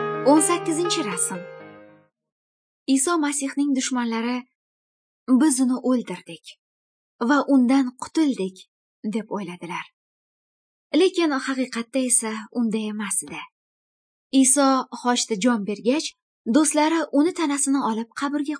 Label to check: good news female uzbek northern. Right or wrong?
Right